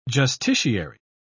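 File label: "justiciary", British English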